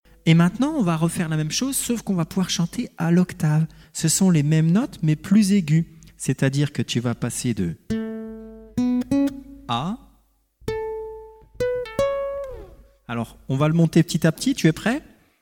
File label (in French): Apprentissage chant explications